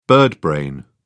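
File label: "birdbrain", British English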